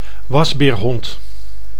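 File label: "wasbeerhond", Dutch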